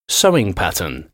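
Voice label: "sewing pattern", English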